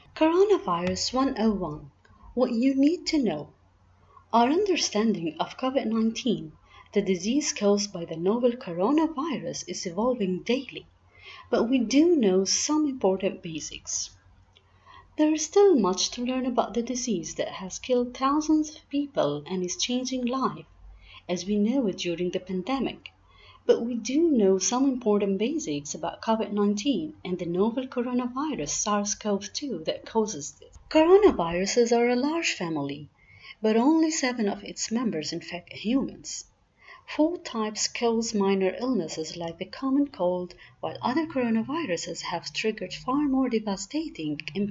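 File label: English US Narration Voice Overs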